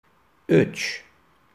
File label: three in Turkish